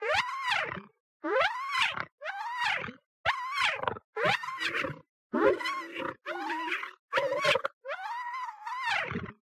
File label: angry; Stray; Zurg